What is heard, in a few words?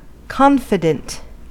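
"confident", American English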